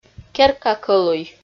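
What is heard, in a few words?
"керка кӧлуй", Komi, female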